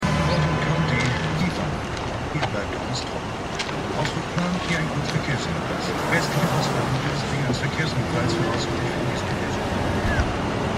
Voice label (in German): Radiosprecher; The Wire